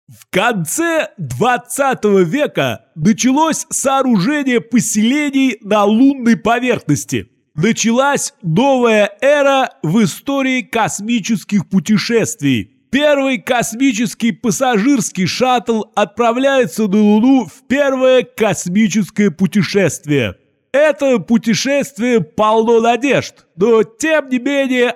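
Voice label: nasal translator voice; volodarskij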